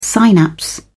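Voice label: "synapse", English, British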